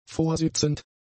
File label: "vorsitzend", German; adjectives